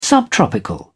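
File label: "subtropical", British English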